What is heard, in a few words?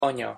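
"anya", Hungarian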